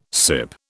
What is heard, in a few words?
"sip", British